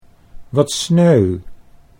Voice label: wat sneu, Dutch

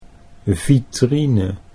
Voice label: "vitrine", Dutch

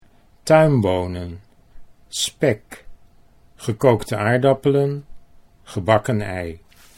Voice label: tuinbonen spek, Dutch

